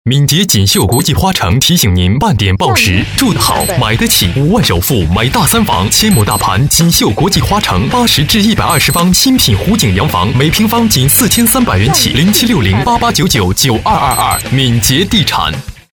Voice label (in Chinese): 男 电台 半点报时, 国语男声配音